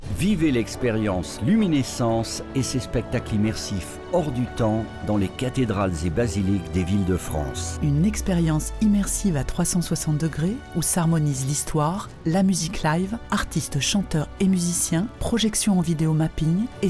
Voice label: spot promo; LUMINESCENCE